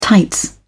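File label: "tights", British English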